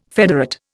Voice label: "federate", Indian